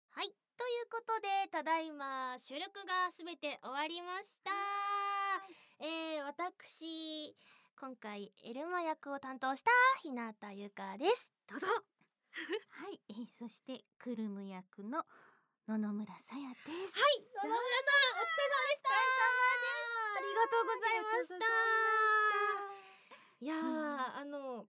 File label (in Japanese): 2人で フリートーク